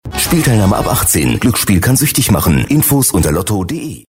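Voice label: German, male, fast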